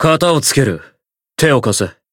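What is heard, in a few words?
Voice limit break Japanese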